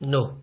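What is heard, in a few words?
"no", Nigerian Pidgin, male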